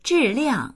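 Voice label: "zhi4liang4", Mandarin Chinese; zhìliàng; 质量; 質量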